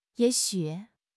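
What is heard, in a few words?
"ye3 xu3", Chinese; HSK4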